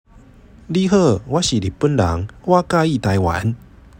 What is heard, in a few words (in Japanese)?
台湾語; 台湾華語と台湾語の違い